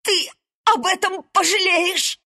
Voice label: death, ru; Luna